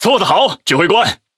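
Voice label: Speech Quick Commands Good Game Ship, Chinese; World Of Warships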